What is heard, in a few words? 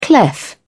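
"clef", English, uk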